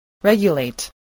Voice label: "regulate", spelling voice

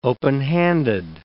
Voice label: "openhanded", English

